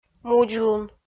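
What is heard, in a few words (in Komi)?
"мудзлун", Komi, female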